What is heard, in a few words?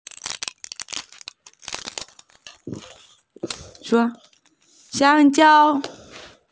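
"xiang1jiao1" Mandarin Chinese, female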